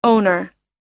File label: "owner", us